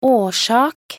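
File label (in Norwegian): "årsak", Norwegian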